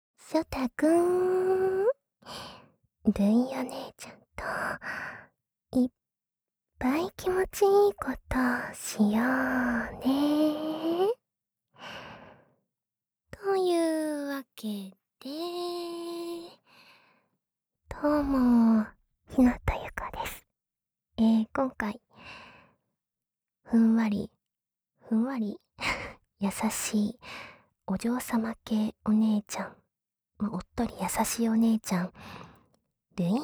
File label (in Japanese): フリートーク, ASMR